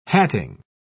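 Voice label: "hatting", English, uk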